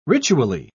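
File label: "ritually", British English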